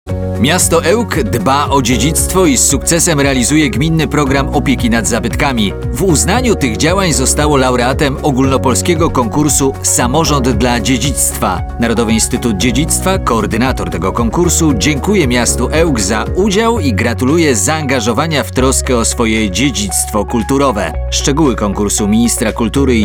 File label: spot